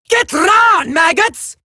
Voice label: Cover Me Eximus Grineer Female; Warframe; Battle Barks